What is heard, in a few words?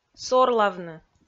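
"сорлавны", Komi, female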